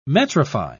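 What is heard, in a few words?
"metrify", English, British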